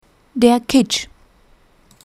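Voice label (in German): "kitsch", German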